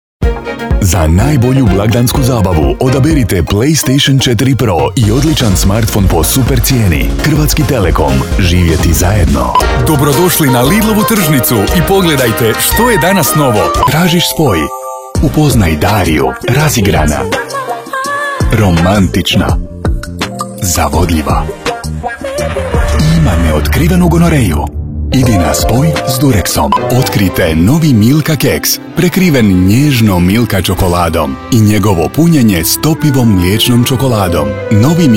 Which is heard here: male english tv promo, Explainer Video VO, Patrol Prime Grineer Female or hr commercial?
hr commercial